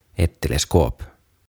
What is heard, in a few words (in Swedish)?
"Ett Teleskop" Kaukoputki